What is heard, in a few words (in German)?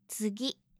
"tsugi", japanische